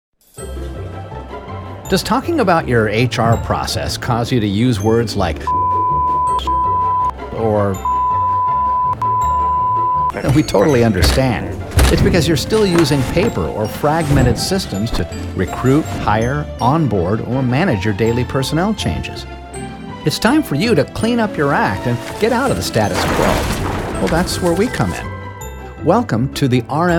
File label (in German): Erklarvideo Profi Publikum, NATIVE PROFISPRECHER